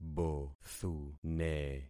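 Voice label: "bothune", concatenated syllables; Affixing experiment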